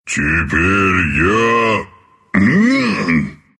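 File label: anger, Russian; Treant; Dota 2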